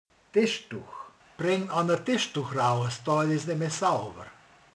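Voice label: "asztalterítő", Hungarian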